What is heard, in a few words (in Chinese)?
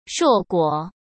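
"硕果", Mandarin; chinese-flashcards; hsk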